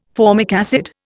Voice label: "formic acid", Indian